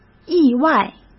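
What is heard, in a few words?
"意外", Mandarin Chinese; chinese-flashcards; hsk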